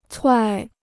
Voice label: "踹", Chinese; 踹 (chuài); to kick; to trample

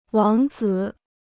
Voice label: "王子", Chinese

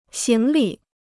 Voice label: "行李", Chinese

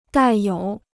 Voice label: "带有", Chinese

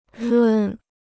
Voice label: "润", Chinese

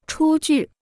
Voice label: "出具", Chinese

